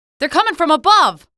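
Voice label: citizen alert soldier above, female; hl2 ep1; episode 1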